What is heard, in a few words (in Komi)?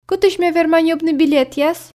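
кытысь ме верма ньӧбны билетъяс, Komi, female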